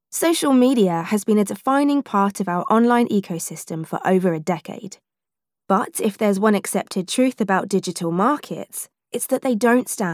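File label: VO Mixed Corporate Reel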